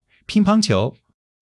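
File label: "ping1 pang1 qiu2" Chinese; 基础汉语40课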